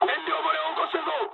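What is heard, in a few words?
Japanese tank damaged mood high; War Thunder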